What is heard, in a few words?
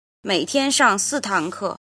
"jin", Chinese